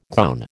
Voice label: "gown", American